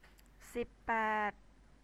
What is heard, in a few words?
"sib paed" Thai